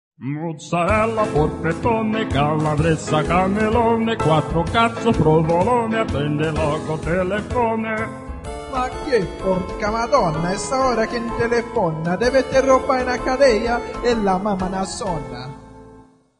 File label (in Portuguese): italiano; italiano liga